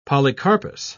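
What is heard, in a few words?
"polycarpous", British English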